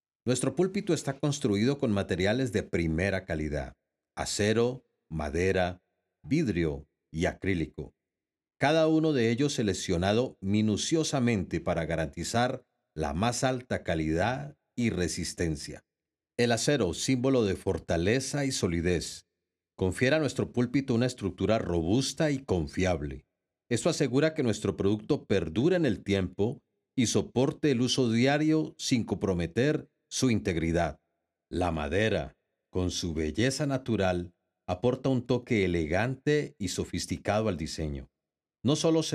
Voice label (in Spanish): Voz descripcion pulpito; luminar editado mar de cristal ipuc bello antioquia